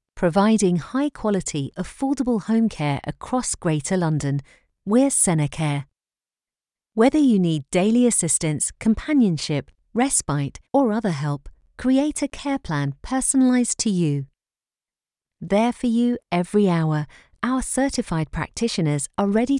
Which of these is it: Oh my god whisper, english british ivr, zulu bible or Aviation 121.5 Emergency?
english british ivr